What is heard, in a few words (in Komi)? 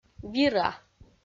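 "вира", Komi, female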